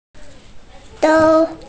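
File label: "sou1", Mandarin Chinese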